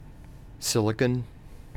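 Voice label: "silicon", American English; pronunciations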